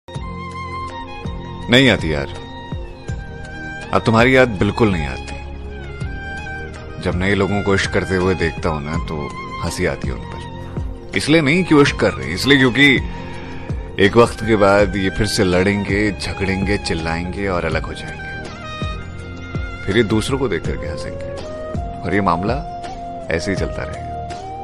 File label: Shayari Ringtone